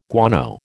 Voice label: "guano", American